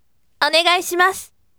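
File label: voice, bright; onegaishimasu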